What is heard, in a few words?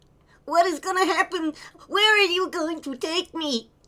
Male Kid Beggings